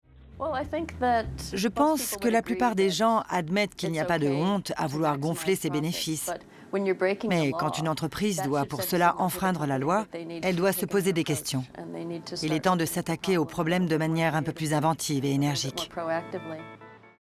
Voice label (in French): voix off; investissements financiers